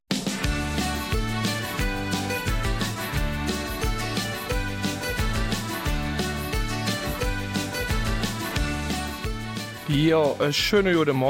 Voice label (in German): Eifeler Mundart; Kinderkarneval in Rodt; In der Eifeler Mundartsendung steht an diesem Sonntag der Kinderkarneval in Rodt im Mittelpunkt.; Außerdem geht es um ''Lach mot Zem Rodt''